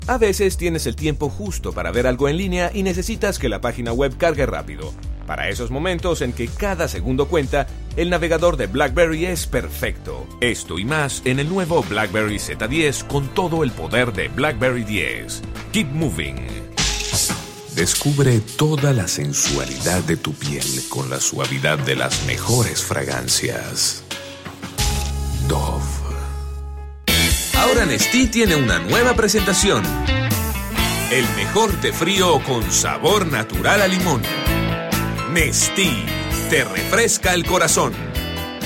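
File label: Spanish Latin American male Commercials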